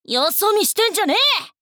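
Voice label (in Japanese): 「よそ見してんじゃねぇ！」, 女の子 オラオラ系少女 戦闘ボイス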